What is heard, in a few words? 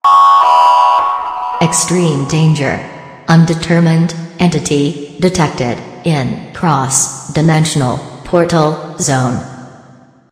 announcement; svencoop; st mission; core